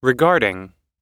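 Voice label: "regarding", American English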